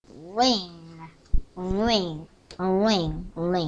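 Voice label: "ring", spoken word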